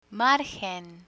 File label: "margen", Spanish; technology vocabulary; learn spanish